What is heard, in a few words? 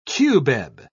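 "cubeb", British English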